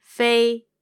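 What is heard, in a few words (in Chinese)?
"fei", Mandarin Chinese, female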